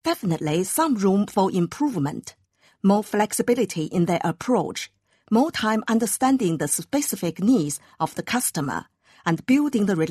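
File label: Chinese corporate; GoLocalise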